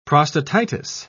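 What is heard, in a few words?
"prostatitis", British English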